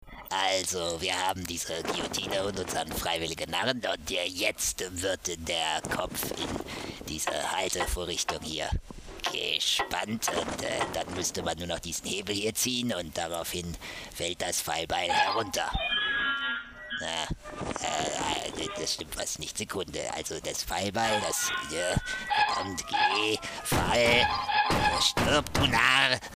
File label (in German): Narr